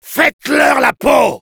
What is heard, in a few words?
battlecry, fr; Soldier